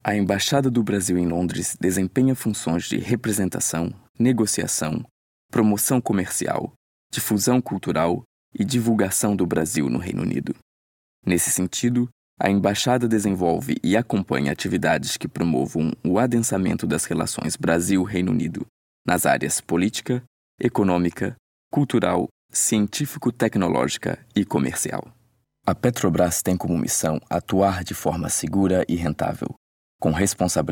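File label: Portuguese, Corporate; GoLocalise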